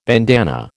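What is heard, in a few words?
"bandanna", American English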